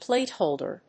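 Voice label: "plate holder", English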